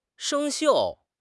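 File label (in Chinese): "生锈", Chinese; HSK6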